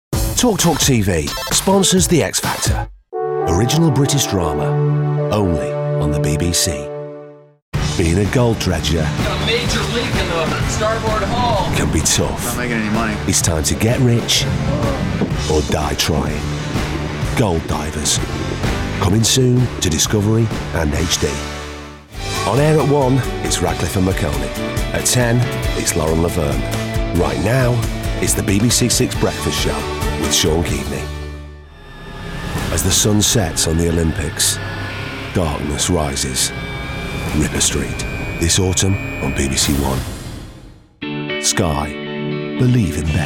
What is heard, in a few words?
Promo Ident